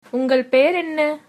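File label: name, Tamil, female; Tamil (formal); உங்கள் பெயர் என்ன?; ungal peyar enna?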